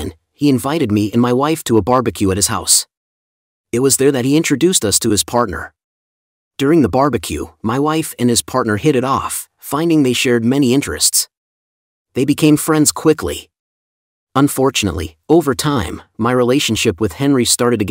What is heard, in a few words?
B1 dialogue at work